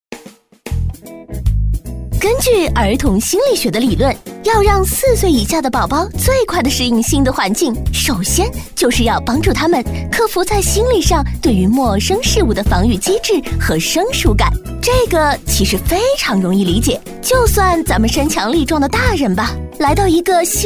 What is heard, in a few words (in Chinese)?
女 【轻松快速】; 轻松活泼; 飞碟说MG